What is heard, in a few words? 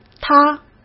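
"她", Mandarin Chinese; chinese flashcards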